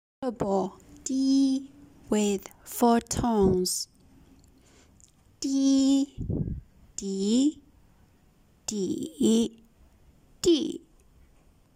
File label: "di" with 4 tones, Mandarin